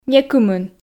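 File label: "некымын", Komi, female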